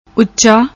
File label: "ucha", Punjabi; ਉੱਚਾ